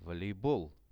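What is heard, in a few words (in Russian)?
"волейбол", Russian; sport; RUSSIAN VISUAL DICTIONARY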